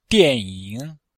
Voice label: "dian4ying3", Chinese

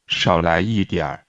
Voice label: Mandarin Conversation

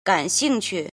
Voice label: "jin", Chinese

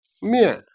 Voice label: "jian", Chinese

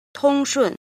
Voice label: "jin", Chinese

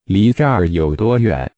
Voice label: Mandarin Conversation

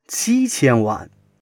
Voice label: "qi1 qian1 wan4", Chinese

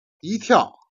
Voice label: "jian", Chinese

